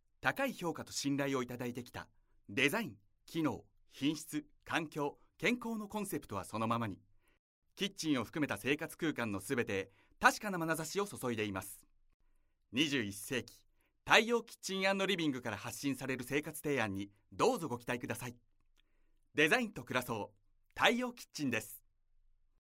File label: narration